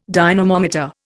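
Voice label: "dynamometer", Indian English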